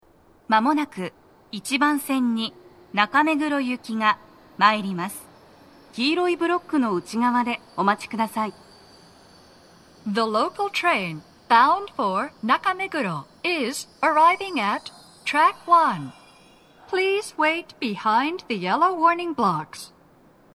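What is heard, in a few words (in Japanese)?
茅場町駅, 1番線 接近放送, 女声, TOA天井型での収録です; １番線 中目黒方面; 放送は「中目黒行」です。; 日比谷線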